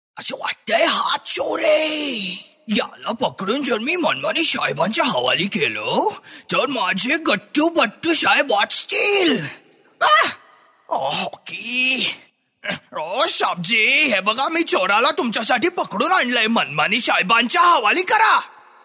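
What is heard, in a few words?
Marathi, male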